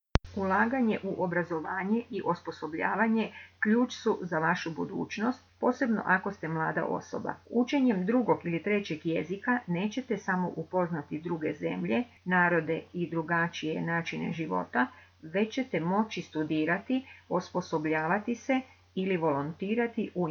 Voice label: trial dictation